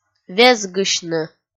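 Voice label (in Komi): "везгысьны", Komi, female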